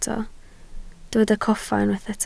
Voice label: "coffa", Welsh